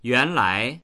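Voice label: "yuan2lai2", Mandarin Chinese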